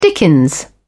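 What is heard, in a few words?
"dickens", British English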